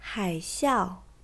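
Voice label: "hai3xiao4", Chinese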